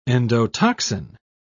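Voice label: "endotoxin", British English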